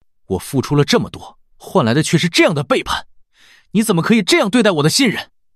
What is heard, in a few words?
Angry; MiniMax-Speech-Tech-Report